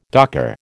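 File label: "docker", American English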